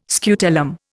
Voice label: "scutellum", Indian